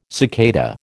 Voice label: "cicada", American